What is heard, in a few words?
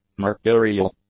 "mercurial", english; adjectives